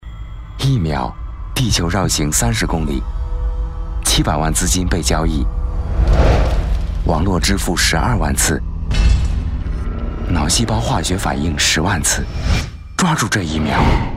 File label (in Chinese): 男 广告 时尚; 宝马5系